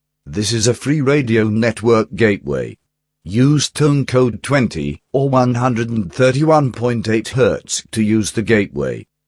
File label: english tonecode & hertz announcement